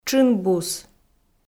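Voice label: "тшын-бус", Komi, female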